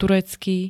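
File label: "turecký", Slovak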